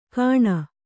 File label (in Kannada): "ಕಾಣ", Kannada